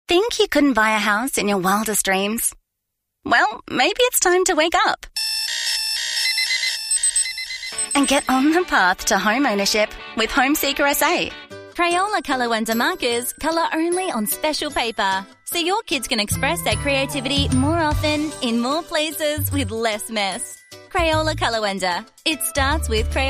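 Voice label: Australian English, female, Commercial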